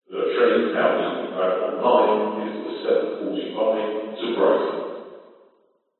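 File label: public places train station england announcement the train leaving platform 9 is 7 45; brighton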